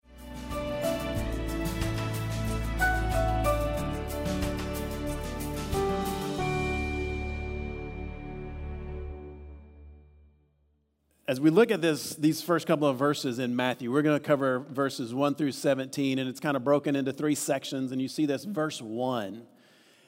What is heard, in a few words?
Sermon English